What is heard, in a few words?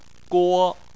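"guo", Chinese, first tone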